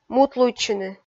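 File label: "мутлуйтчыны", Komi, female; kpv audio dict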